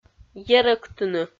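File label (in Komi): "ерӧктыны", Komi, female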